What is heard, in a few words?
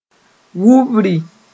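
"wubri", Mooré; thick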